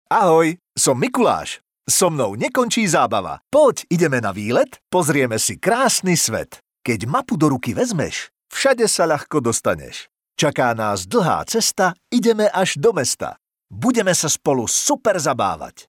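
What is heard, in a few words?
Male Slovak drama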